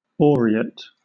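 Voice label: "aureate", British English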